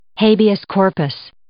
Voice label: "habeas corpus", English